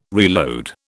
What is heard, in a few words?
"reload", British